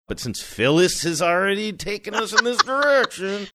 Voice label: saying but since already taken us in direction funny